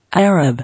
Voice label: "Arab", English